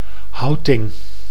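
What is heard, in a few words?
"houting", Dutch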